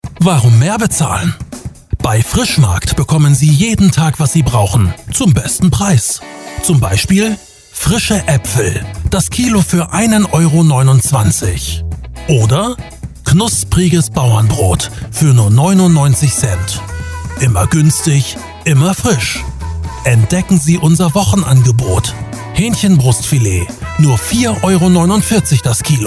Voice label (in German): Spot Broadcast